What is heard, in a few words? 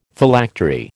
"Phylactery", American